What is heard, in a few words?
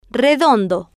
"redondo", spanish